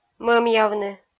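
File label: "мӧмъявны", Komi-Zyrian, female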